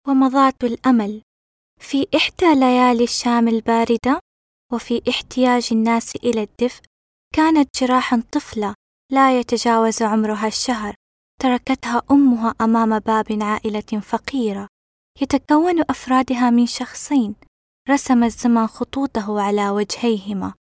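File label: Arabic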